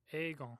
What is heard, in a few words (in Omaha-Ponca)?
"egon", Omaha language